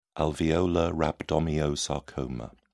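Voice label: "alveolar rhabdomyosarcoma", British English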